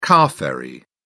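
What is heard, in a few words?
"car-ferry", English, British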